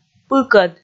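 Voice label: "пыкӧд", Komi, female; kpv audio dict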